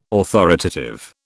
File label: "authoritative", British